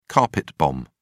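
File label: "carpet bomb", English, British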